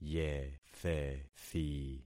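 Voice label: "yethethi", concatenated syllables; Affixing experiment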